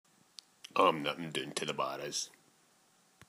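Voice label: "Barras", slang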